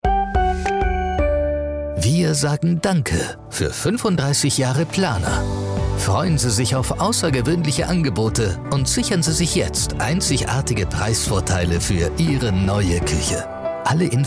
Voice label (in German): werbung; plana; Muttersprache Deutsch